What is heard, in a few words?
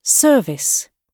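"service", British English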